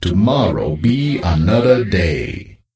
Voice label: NPC Death Knight Troll Male Farewell